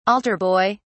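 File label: "altar boy", British English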